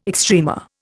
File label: "extrema", Indian